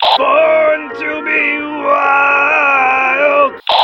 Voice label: team radio; tf2